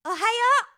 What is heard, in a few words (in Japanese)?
"おはよ～", 明るい声